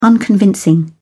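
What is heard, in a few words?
"unconvincing", British English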